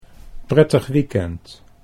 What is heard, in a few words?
"prettig weekend", Dutch